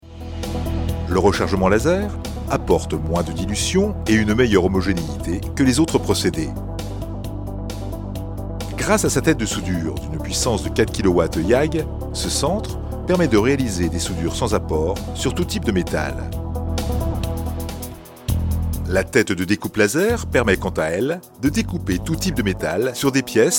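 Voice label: French, male, explainer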